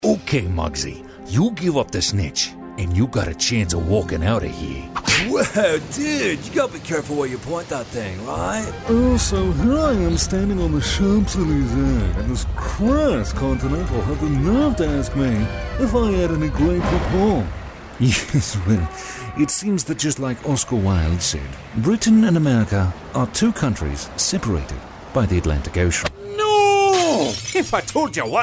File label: Animation